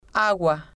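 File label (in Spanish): "agua", spanish, female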